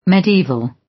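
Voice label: "medieval", British English; The arts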